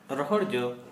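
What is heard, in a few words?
Santali male dry fruit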